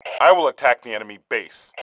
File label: attacking base, male